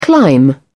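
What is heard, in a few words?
"climb-down", British English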